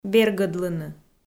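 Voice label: "бергӧдлыны", Komi, female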